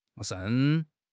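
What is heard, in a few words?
"盛", Mandarin Chinese; HSK; HSK6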